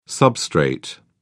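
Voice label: "substrate", British English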